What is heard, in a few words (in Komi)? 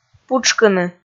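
"путшкыны", Komi, female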